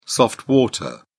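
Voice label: "soft water", British English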